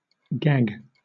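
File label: "gag", British English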